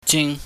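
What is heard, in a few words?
"jing", Cantonese, mid tone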